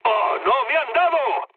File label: aircraft damaged, mood med, speech; War Thunder